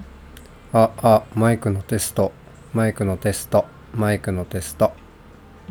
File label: microphone test